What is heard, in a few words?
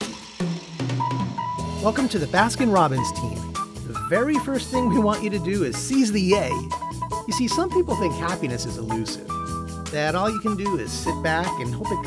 E-learning